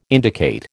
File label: "indicate", American